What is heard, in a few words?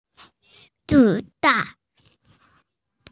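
"bullet", Mandarin Chinese, female; zi3dan4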